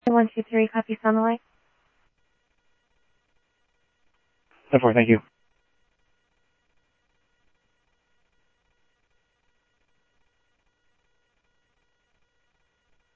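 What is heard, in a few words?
Sheriff East County, scanner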